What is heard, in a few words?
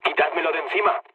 aircraft damaged, mood low, speech; War Thunder